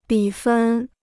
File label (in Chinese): "比分", Chinese